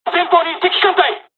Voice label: dialog, dialogs japanese; us midway; War Thunder

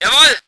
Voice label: voice ger vehicle

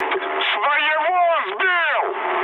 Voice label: ru player killed ally mood med; War Thunder

